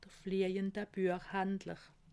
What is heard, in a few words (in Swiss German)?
"fliejende", alsace